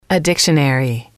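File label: a Dictionary, english, female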